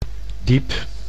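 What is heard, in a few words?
"diep", Dutch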